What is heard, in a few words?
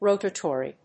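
"rotatory", English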